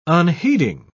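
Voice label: "unheeding", British English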